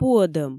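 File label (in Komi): "пуӧдӧм", Komi, female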